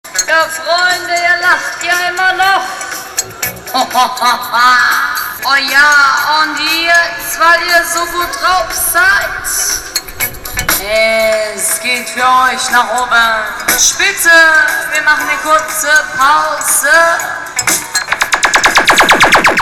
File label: octoberfest animateur